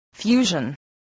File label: "fusion", voice, English